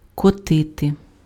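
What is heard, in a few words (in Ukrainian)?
"котити", Ukrainian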